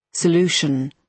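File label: "solution", British English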